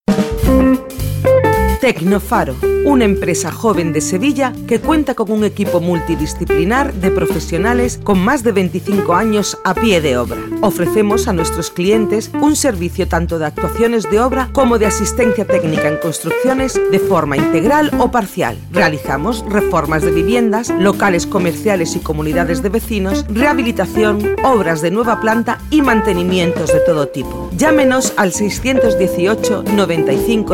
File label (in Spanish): Cuña Publicitaria